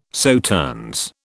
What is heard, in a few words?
"Sauternes", British